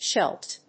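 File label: "Scheldt", English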